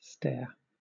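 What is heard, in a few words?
"stare", British English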